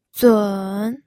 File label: "zun3", pinyin